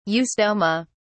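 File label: "eustoma", British English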